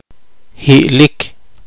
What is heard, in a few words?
poqomchi; descansar